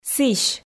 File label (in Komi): "сісь", Komi